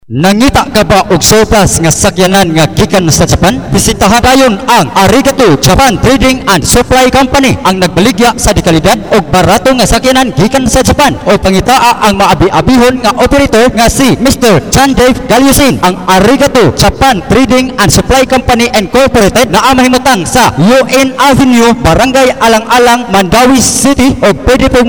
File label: COMMERCIAL